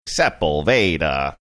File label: sepulveda incorrect pronunciation used by gps